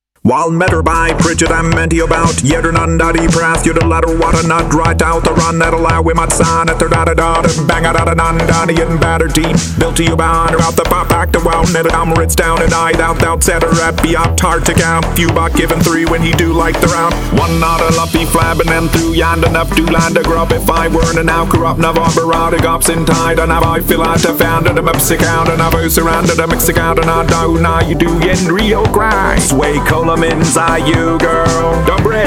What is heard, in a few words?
gibberish auctioneering